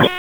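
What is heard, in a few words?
Raleigh Police Southwest District Dispatch, p25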